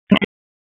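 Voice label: Police Dispatch, p25; Wake Forest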